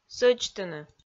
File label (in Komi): "сӧдзтыны", Komi